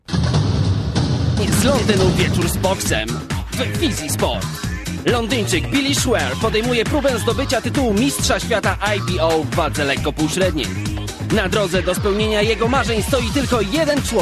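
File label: Polish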